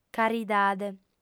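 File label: "caridade", female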